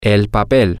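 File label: the paper, Spanish, male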